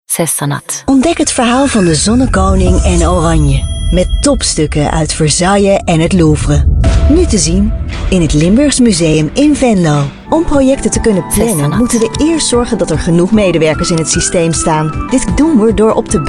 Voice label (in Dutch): DUTCH